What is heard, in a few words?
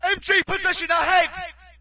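British mg ahead; player; source engine; dod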